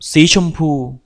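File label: pink, Serbian; gcompris-data; colors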